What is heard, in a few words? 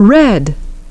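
"red", English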